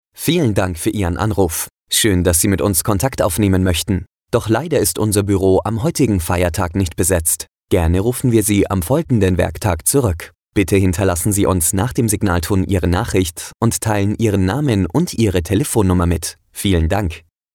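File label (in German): ansage male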